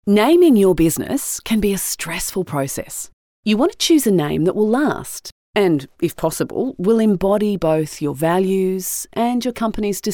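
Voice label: English Australian, female, narration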